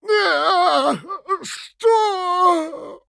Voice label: Male Troll Death; Hearthstone: датамайн обновления 5.0